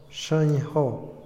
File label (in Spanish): "hermoso", Otomí, hombre; INALI